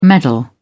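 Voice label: "medal", English, British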